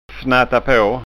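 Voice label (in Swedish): "fnattapa", framtidsskånska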